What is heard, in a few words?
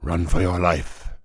Hero demon hunter yes attack, en